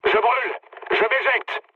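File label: French on fire mood low; War Thunder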